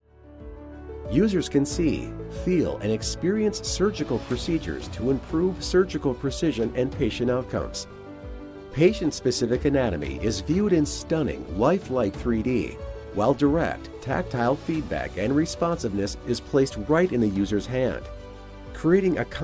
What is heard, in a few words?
promo